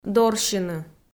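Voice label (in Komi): "дорсьыны", Komi, female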